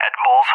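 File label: "at bulls", English, USA; DCS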